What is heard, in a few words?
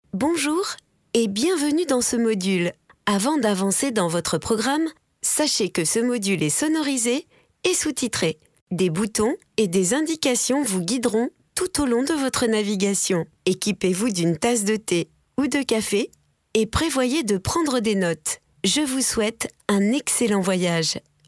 e-learning